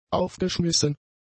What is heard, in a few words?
"aufgeschmissen", German; adjectives